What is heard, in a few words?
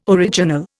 "original", Indian